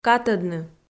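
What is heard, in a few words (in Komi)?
"катӧдны", Komi, female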